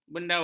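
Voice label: "bendawat", Malay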